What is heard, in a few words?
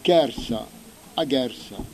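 "chersa", Corsican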